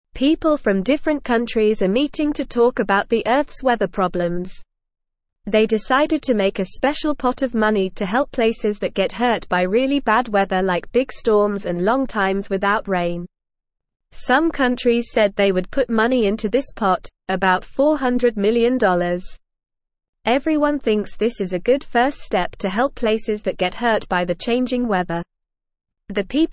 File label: English Lower Intermediate NORMAL Reading; Big Meeting Agrees to Help Places Hurt by Bad Wea